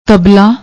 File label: "taballa", Punjabi; ਤਬਲਾ